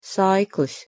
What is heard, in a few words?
"saiklis", Latvian